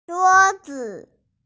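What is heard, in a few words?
"zhuo1 zi5", Mandarin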